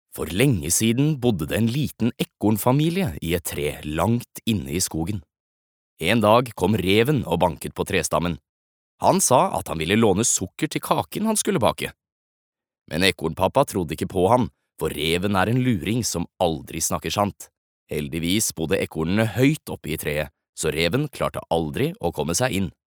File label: no childrens book